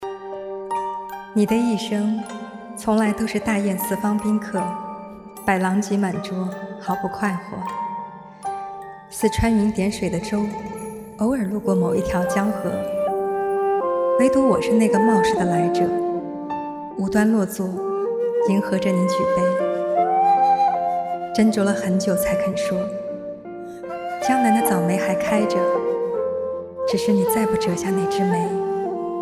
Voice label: 文案配音

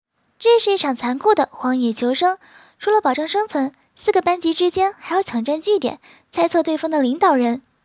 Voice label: 动漫 解说

